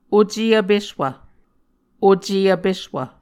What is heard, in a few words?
"oce vpeswv", Muscogee